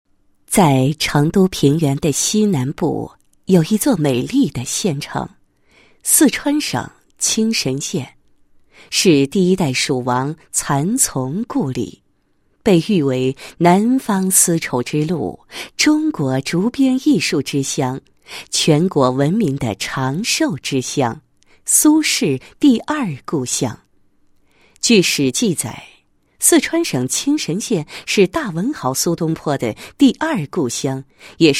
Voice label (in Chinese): 女 产品介绍; 东坡竹系列养生长寿珍品